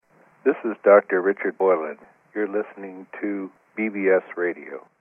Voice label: bbs radio promo